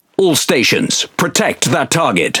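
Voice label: Pirate Speech Quick Commands Ally Ship, English pirate; World Of Warships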